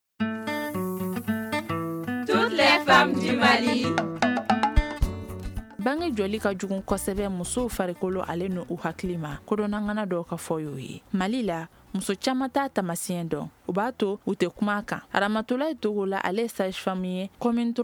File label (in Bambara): magazine bamanankan